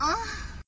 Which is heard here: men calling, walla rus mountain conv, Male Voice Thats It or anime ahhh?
anime ahhh